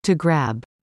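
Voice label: "to grab", English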